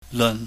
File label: "leun", chinese